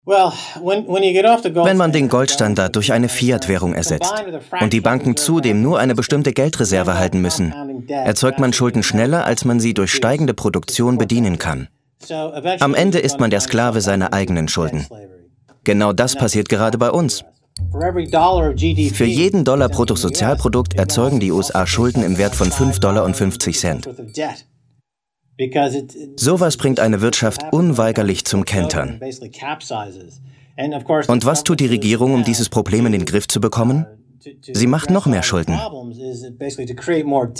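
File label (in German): Kommentar Neutral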